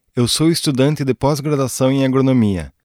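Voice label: Portuguese male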